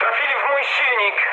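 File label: damaged engine, mood low, Polish speech; War Thunder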